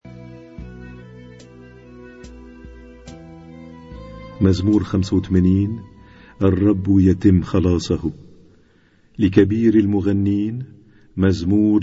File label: Arabic Psalm Zaboor